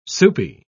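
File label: "soupy", British English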